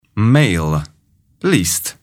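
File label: "mail", English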